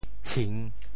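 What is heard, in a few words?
"tshing3", Teochew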